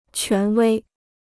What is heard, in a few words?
"权威", Chinese